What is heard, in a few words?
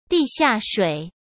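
"地下水", Mandarin Chinese; chinese flashcards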